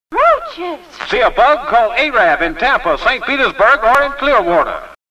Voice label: Arab spot; WHBO; 1964

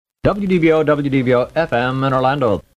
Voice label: Station ID; WDBO

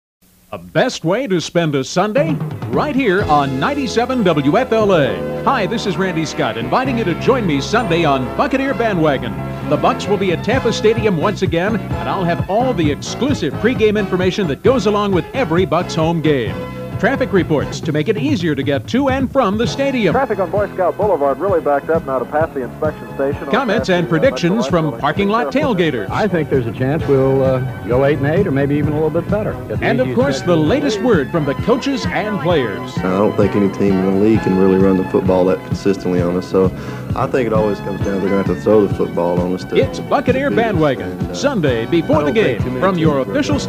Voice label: promo; WFLA; Bucs Bandwagon

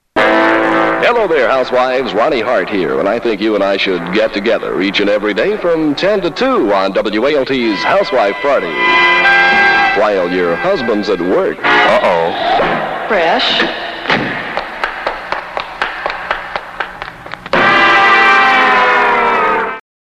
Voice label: promo; 1964

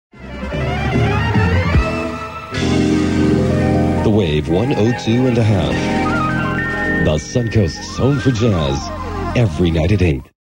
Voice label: sweeper

